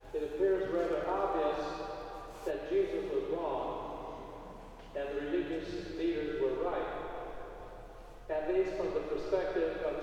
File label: Memorial Church Sermon